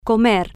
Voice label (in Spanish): "co-mer", Spanish